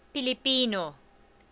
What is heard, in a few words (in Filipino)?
"pilipino", Tagalog